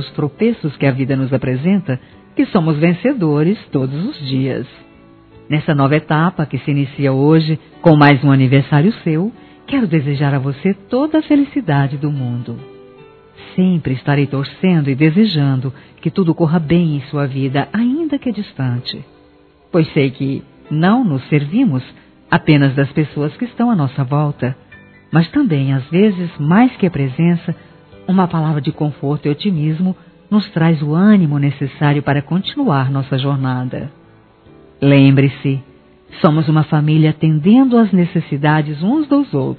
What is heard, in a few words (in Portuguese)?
sogra f; Aniversários; Aniversário Distante; Fem